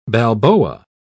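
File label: "balboa", British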